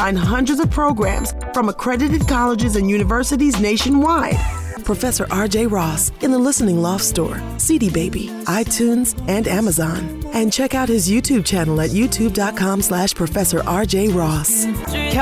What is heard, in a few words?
VOICE OVER